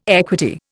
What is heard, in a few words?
"equity", Indian